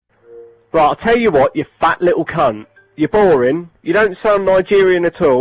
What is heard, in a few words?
angry british man